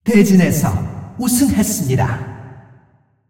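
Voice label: announcer female; ko kr; win bracket generic; Clash; tournaments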